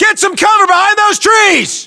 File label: US order cover trees, battlechatter, english